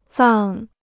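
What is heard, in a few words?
"葬", Chinese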